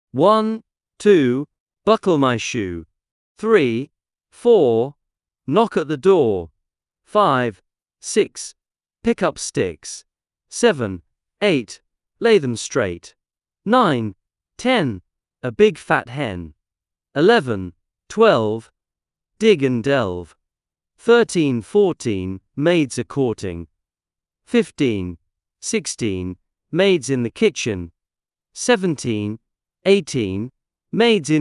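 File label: male British; One Two Buckle My Shoe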